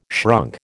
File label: "shrunk", American